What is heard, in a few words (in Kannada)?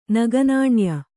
"ನಗನಾಣ್ಯ", Kannada